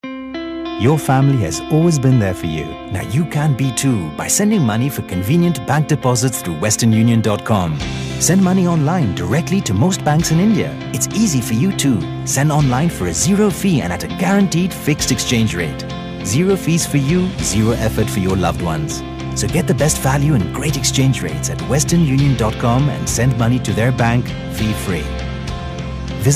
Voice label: indian male indian accent

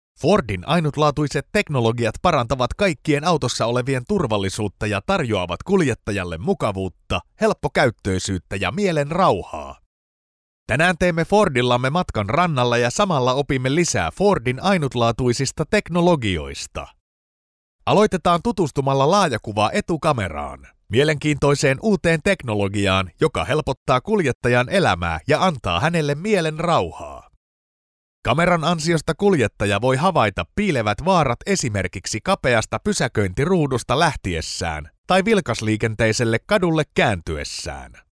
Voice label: corporate

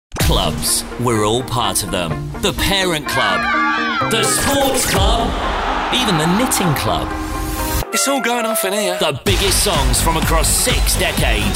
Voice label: imaging

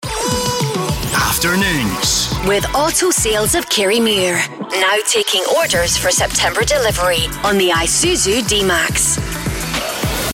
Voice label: imaging sample scotland

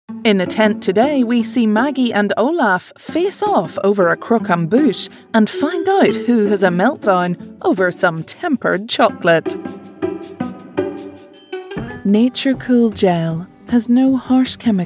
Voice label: northern irish voice tv continuity

